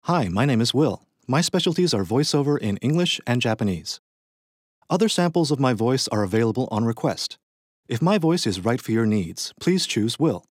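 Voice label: US, voice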